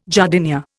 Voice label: "jardinière", Indian